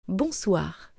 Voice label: "Bonsoir", French

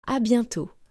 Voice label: "A bientot", French

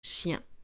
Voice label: "chien" French

